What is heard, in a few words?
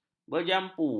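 "berjamu", Malay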